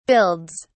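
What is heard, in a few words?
"builds", British English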